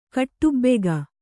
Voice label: "ಖಟಬಾಕಿ", Kannada

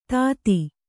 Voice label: "ದಧಿ", Kannada

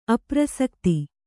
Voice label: "ಅಪ್ರಸಕ್ತಿ", Kannada; aprasakti; noun; = ಅಪ್ರಸಕ್ತತೆ.

